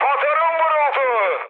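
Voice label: Turkish damaged engine, mood med, speech; War Thunder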